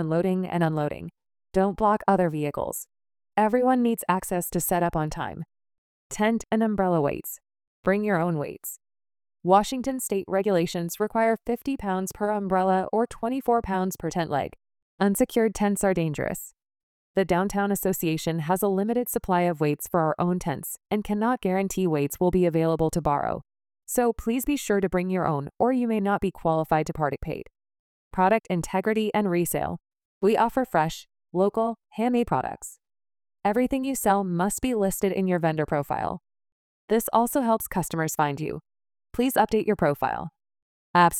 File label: English Farmers Market Message